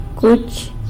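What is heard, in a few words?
"cuch", pig, San Lucas Quiaviní Zapotec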